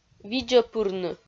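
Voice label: "видзӧ пырны", Komi, female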